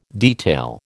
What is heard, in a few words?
"detail", American